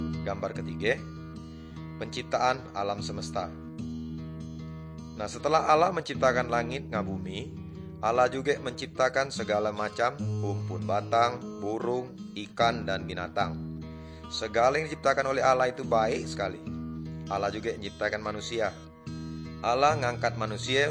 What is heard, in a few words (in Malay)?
good news melayu tanjung raja